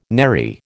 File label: "nary", American